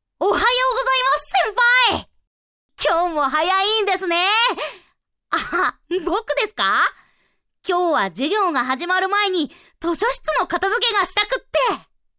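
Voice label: boy, high voice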